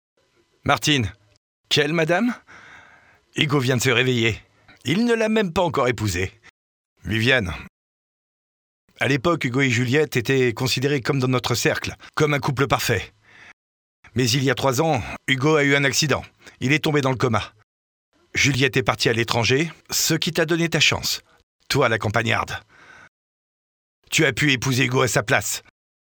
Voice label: locutor frances french voice artist

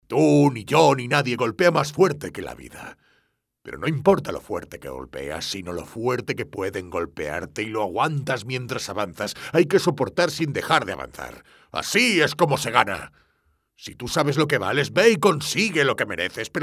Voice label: locutor spanish voiceover

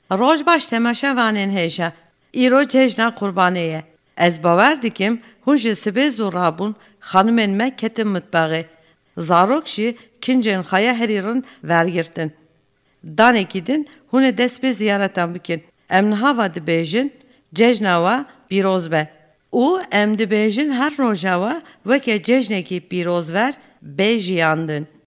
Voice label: kurdish female voiceover locutora kurda

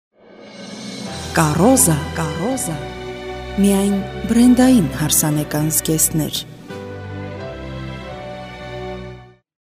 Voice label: female armenian talent locutora armenia

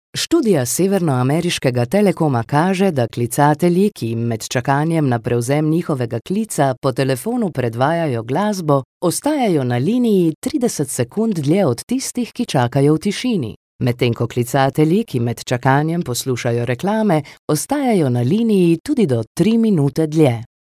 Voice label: slovenian voiceover locutora eslovena

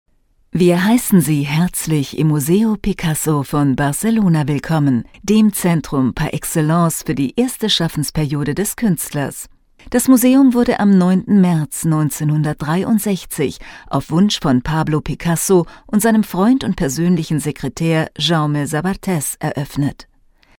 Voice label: locutora alemana German voiceover

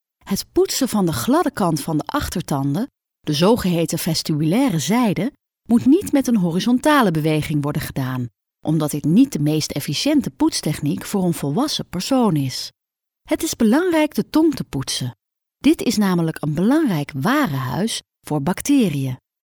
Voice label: female dutch voiceover locutora holandesa; locutora de Holanda

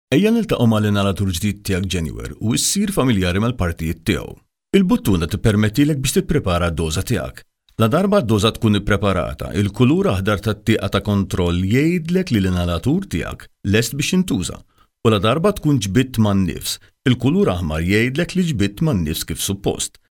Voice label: maltese voiceover locutor

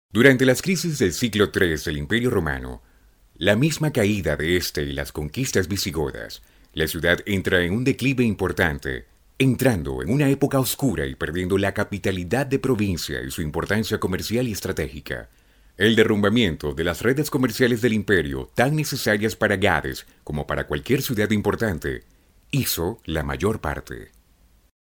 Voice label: locutor venezuela venezuelan voiceover

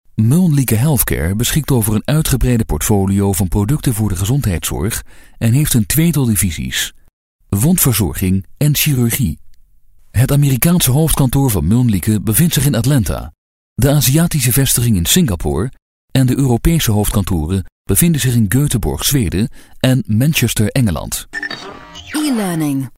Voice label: dutch voiceover locutor holandes; Holanda

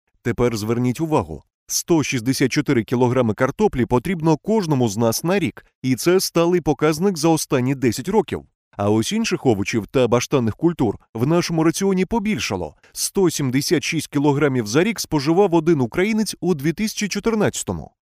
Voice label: ukrainian voiceover locutor ucraniano; Ukrainian male voice over

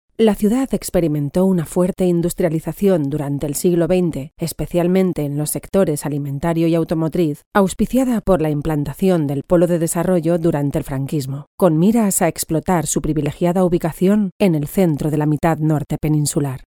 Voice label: locutora spanish voiceover

